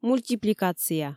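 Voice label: "мультипликация", Komi, female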